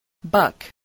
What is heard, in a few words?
"buck", spelling